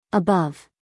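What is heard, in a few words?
"above", American English female; everyone-can-use-english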